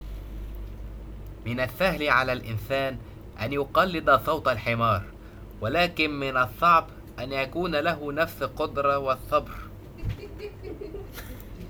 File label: lisp